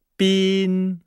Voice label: "bin", Nihao

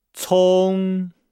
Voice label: "cong", Nihao

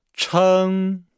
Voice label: "cheng", Nihao

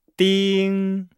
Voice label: "ding", Nihao

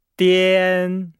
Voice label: "dian", Nihao

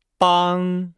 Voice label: "bang", Nihao

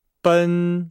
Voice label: "ben", Nihao

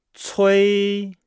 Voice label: "cui", Nihao

